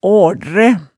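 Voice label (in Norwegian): "aaldræ", Hemsedal dialect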